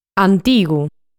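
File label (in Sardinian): "Antigu", Sardinian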